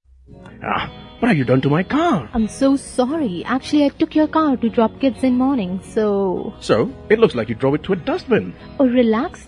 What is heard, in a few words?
Car Wash English